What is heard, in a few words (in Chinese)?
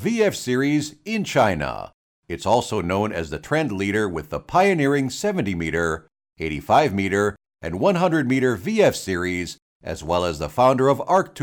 机械配音 Machinery